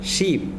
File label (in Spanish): "rodilla" Zapoteco